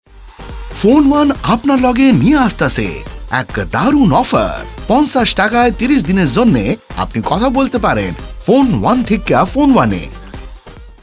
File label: TVC bangladesh